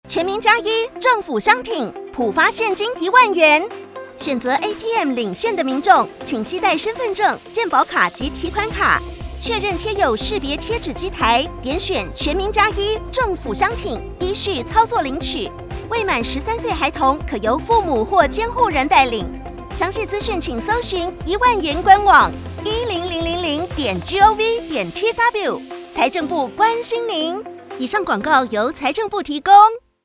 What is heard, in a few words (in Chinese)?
廣播 國語版; 全民+1 政府相挺ATM領現篇廣播-國語版; 政策細節篇